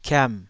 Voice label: "kiam3", taiwanese syllables

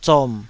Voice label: "zom3", taiwanese

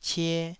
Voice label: "cie2", Taiwanese

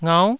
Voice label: "ngau9", taiwanese syllables

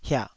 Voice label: "hiah3", taiwanese

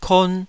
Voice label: "kon2", Taiwanese syllable

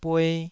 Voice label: "bue2", taiwanese syllables

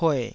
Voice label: "pue3", taiwanese

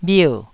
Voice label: "bhier4", taiwanese

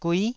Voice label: "gui9", taiwanese

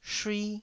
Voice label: "shui1", taiwanese Syllables

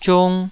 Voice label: "ziung2", taiwanese

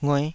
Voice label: "ngueh5", taiwanese syllables

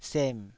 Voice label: "sem3", taiwanese

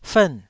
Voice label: "fern4", Taiwanese

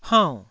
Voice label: "haunn4", Taiwanese syllable

